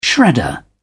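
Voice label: "shredder", British English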